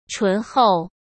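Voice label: "醇厚", Mandarin Chinese; chinese flashcards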